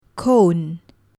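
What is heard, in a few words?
"cone", English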